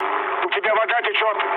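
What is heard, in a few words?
Russian damaged water mood med, speech; War Thunder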